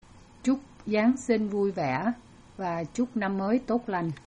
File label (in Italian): vietnamita